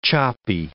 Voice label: "choppy", English